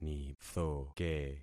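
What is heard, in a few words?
"nithoke", concatenated syllables; Affixing experiment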